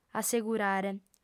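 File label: "assegurare", female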